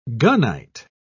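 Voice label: "gunite", British English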